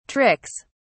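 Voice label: "tricks", British English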